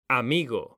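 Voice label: "amigo", Spanish, male; mekanta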